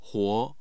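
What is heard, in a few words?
"huo", Mandarin Chinese, tone 2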